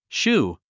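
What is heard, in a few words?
"shoe", English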